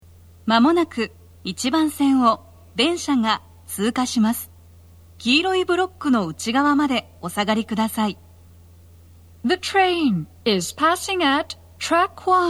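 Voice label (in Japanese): 接近放送, 女声, 放送は「通過電車」です。Panasonic天井型での収録です。; 通過中に通過メロディ―も; 鳴動しているので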